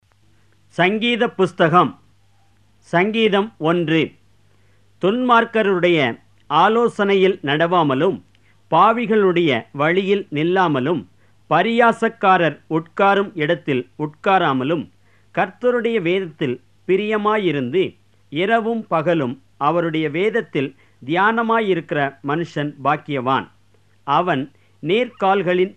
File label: Tamil Psalm